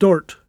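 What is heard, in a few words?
"dort", Cromarty Fishertoun dialect; [dohrt]; to sulk, take offence; Verb; obstinate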